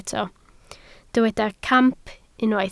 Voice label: "camp", Welsh